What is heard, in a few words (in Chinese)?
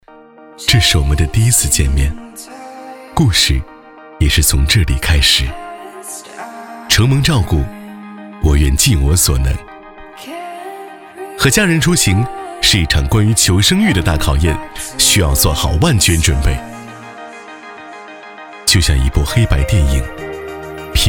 年轻时尚; 比亚迪宋